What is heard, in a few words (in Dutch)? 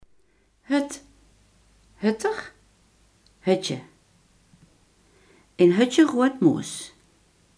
"kool", Limburgs